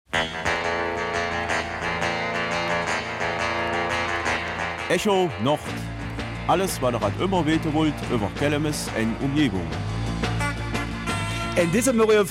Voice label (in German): Kelmiser Mundart; Weihnachtskonzert der Chöre